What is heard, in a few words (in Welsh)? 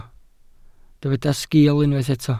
"sgil", Welsh